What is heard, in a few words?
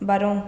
"baron", andalusien sevilla